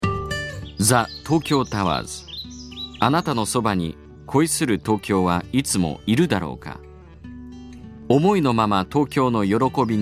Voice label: Jap Narration